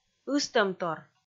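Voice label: "ыстӧмтор", Komi, female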